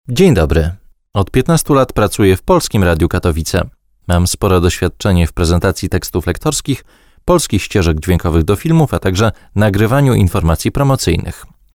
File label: Polish Male